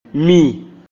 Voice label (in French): "Avaler", Mina; Sáán